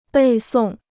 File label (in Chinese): "背诵", Chinese